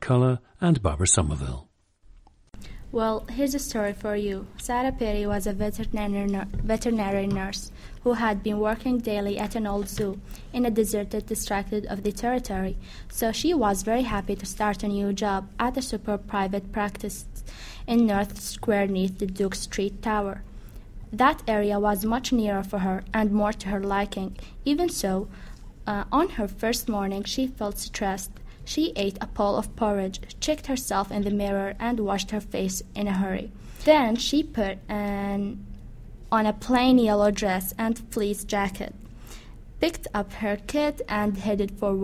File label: saudi arabia, dialects